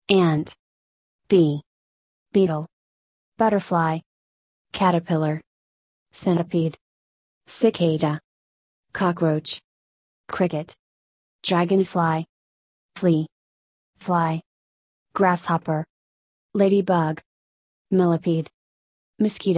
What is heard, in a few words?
usa; bugs